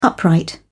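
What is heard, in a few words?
"upright", British English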